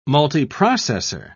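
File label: "multiprocessor", British English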